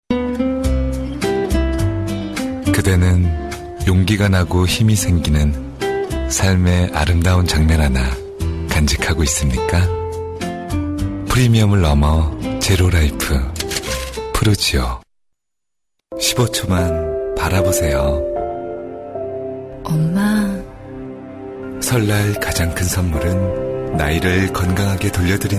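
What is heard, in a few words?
Korean male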